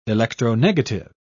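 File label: "electronegative", British English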